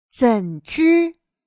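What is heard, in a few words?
"怎知", Chinese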